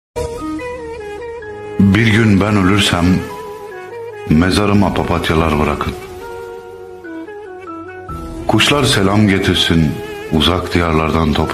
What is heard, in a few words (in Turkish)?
siir harika fon muzigi beat duet